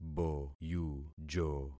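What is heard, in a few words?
"boyujo", concatenated syllables; Affixing experiment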